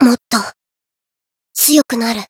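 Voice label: Voice growth, japanese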